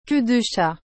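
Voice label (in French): "queue de chat", French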